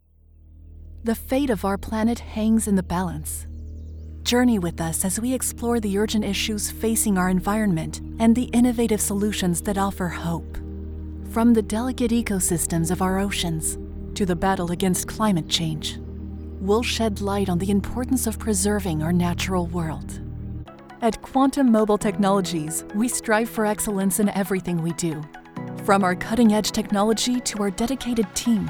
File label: corporate